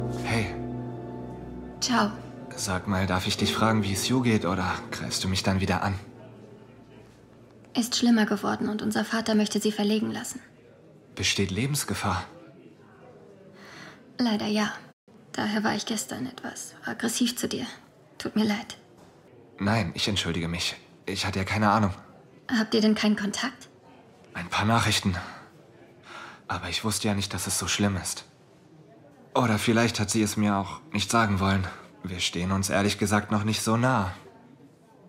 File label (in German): Lip-Sync (Synchron), German; Doc (Staffel 3) - Marco